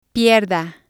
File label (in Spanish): "pierda", spanish